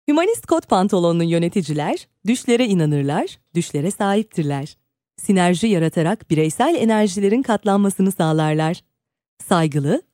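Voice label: Turkish, female, e-learning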